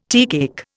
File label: "teacake", Indian